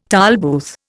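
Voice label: "told", Indian; Dictionary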